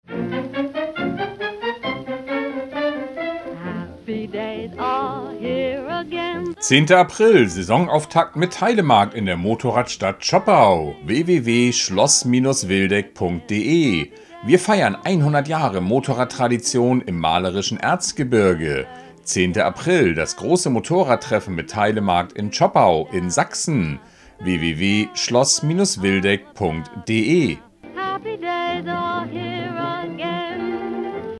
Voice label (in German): Spot; Zschopau; Saisonauftakt Motorrad-Treffen und Teilemarkt Zschopau